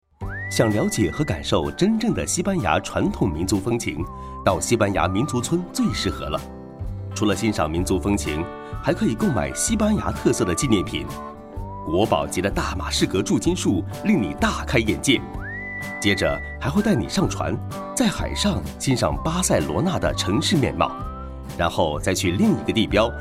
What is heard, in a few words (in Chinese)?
國語男聲 政府宣傳旁白